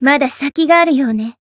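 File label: Voice growth, Japanese; radica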